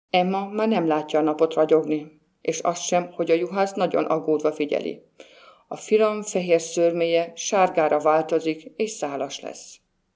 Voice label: ungarisch

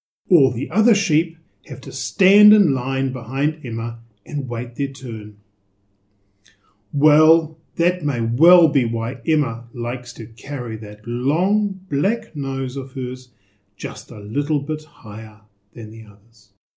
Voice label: englisch

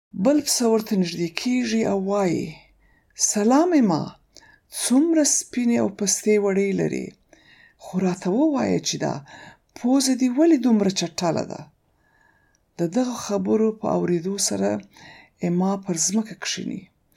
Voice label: paschtu

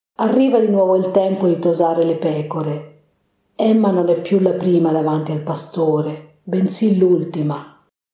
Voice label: italienisch

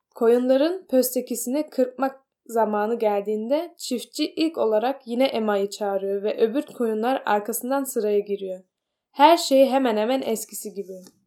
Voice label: türkisch

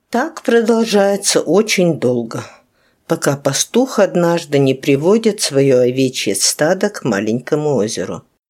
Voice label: russisch

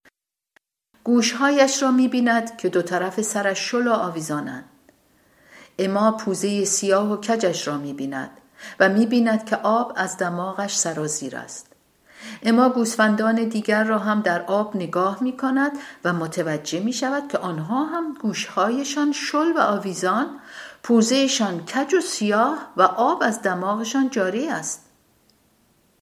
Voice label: farsi

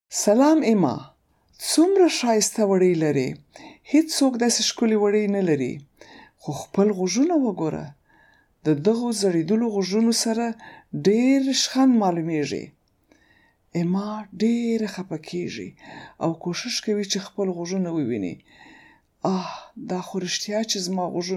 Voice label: paschtu

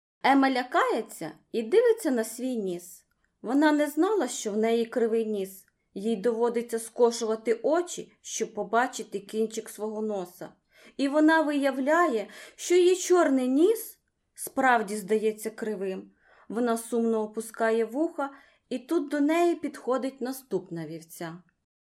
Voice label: ukrainisch

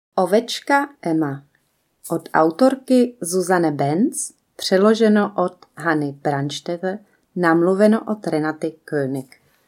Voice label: tschechisch

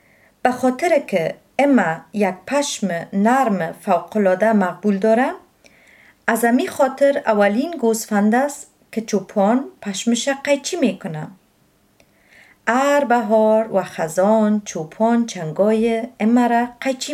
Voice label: dari

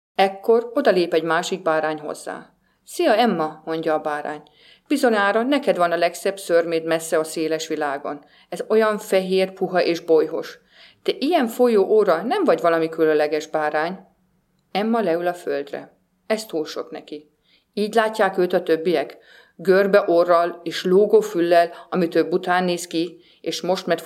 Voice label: ungarisch

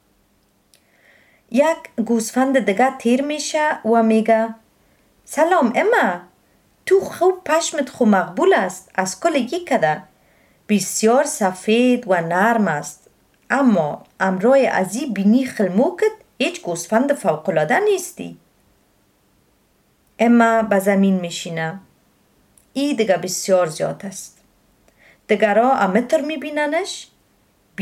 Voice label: dari

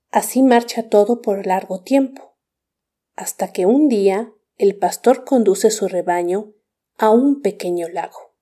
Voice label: spanisch

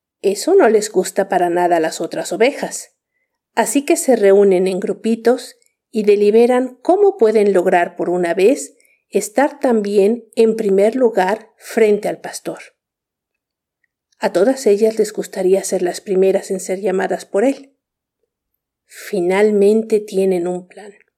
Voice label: spanisch

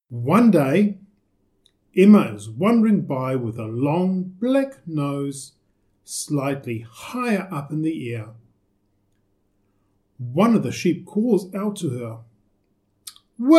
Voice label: englisch

